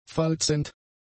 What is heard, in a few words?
"falzend", German; adjectives